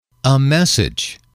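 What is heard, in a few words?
"a Message", male, english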